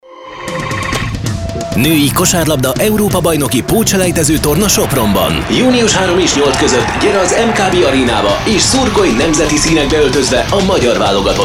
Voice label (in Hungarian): radio spot; eb potselejtezo